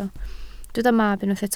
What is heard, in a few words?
"mab", Welsh